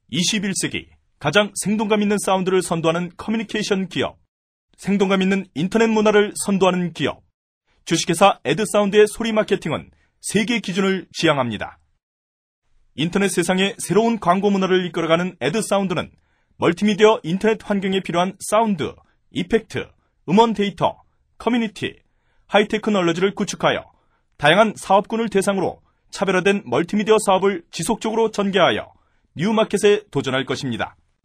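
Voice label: Korean, male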